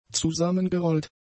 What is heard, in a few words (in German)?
"zusammengerollt", German; adjectives